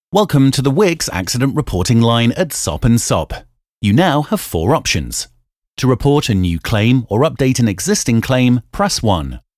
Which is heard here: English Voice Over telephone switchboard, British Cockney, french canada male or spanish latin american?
English Voice Over telephone switchboard